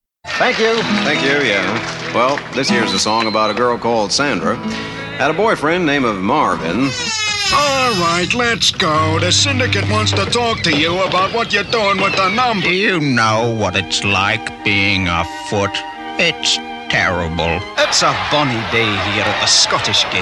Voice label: Characters Accents